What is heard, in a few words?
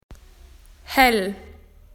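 "light", Australian English; PictureDictionary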